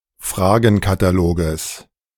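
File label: "Fragenkataloges", German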